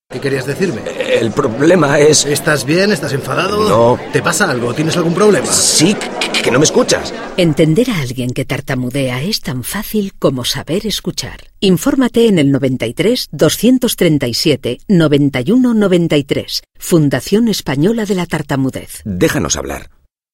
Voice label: spot